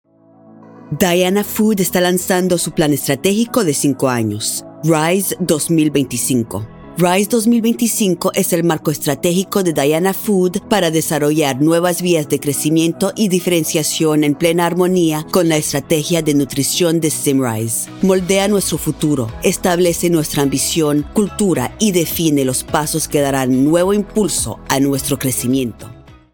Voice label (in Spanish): espagnol; Diana Food